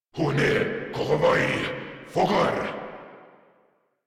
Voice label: Cover Me Grineer Male